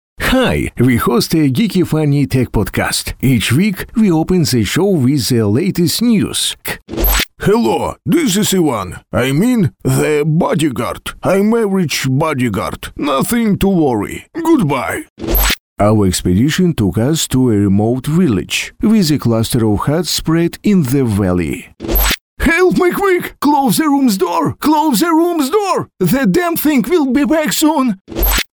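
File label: Ukrainian, English, male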